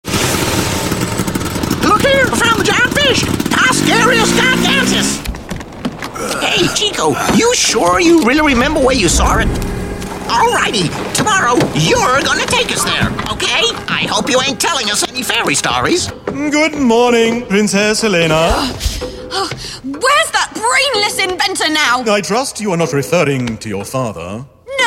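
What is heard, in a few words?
English UK Characters; GoLocalise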